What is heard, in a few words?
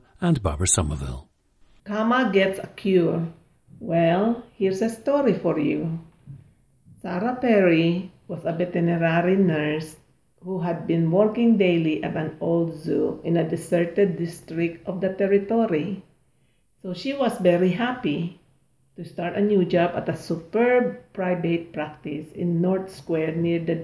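philippines, dialects of English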